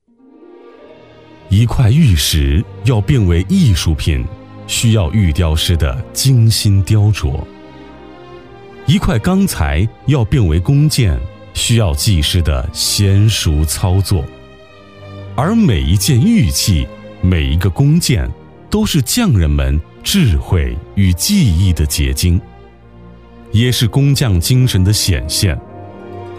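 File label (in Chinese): 男国大音-大学宣传片; 河职专题; 匠之心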